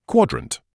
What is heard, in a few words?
"quadrant", English